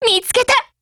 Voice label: phrase, JP